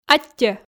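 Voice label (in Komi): "аттьӧ", Komi, female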